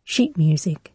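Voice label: "sheet music", British English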